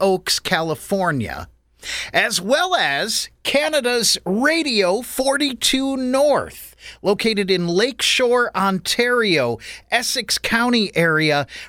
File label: Station Shout Outs